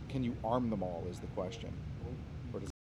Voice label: Male Voice; NYCC 2013